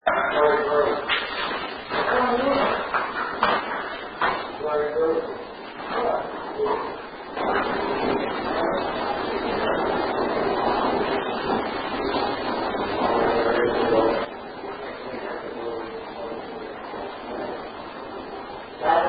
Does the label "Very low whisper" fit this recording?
Yes